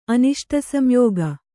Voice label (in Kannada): "ಅನಿಷ್ಟಸಂಯೋಗ", Kannada